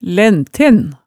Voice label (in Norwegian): "lænten", hemsedalsmaalet tale